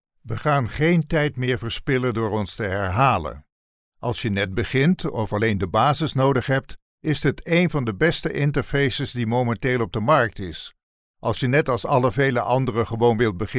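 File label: Dutch, male, voice over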